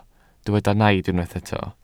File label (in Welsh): "naid" Welsh